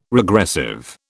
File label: "regressive", British